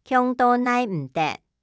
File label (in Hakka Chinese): 暢到耐毋得, 客語